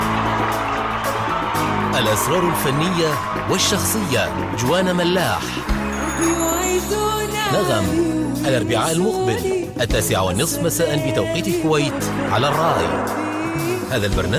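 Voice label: Arabic, General; GoLocalise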